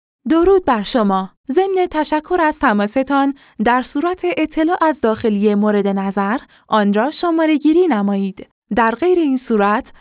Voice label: Women